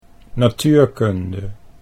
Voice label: "natuurkunde", Dutch